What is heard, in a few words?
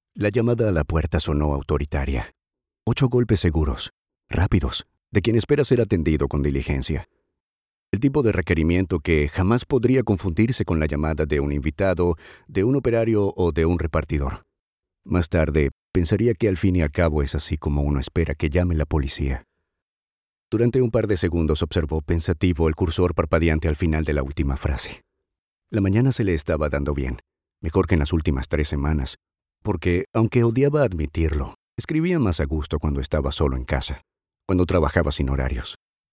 narration